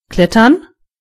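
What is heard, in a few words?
climb, German voices words; gcompris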